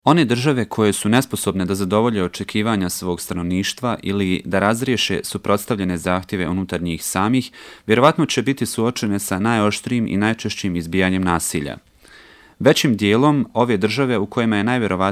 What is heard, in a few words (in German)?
Bosnien M1, voiceactors